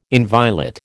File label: "inviolate", American